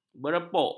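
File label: "berepok", Malay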